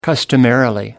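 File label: "customarily", British English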